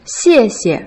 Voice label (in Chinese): "xie4 xie", Putonghua; Uni1Lesson4